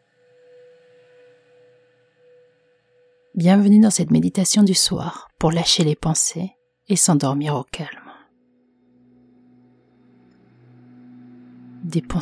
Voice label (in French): meditation dormir paisiblement